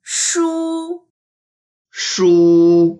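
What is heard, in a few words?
"shu1", Mandarin Chinese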